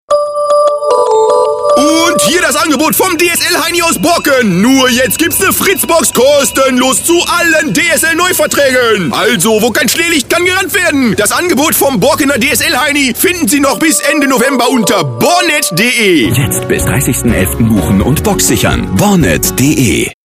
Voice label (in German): Ruhrpott Werbung